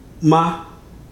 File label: "love", Ibibio